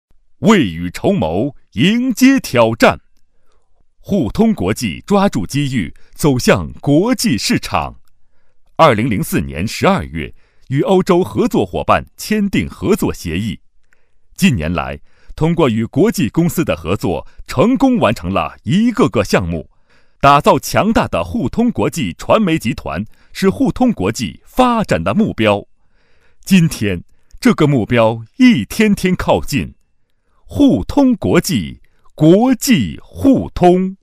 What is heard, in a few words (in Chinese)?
男 专题 厚重, 国语男声配音; 大气专题; 互通国际2